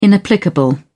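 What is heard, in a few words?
"inapplicable", British English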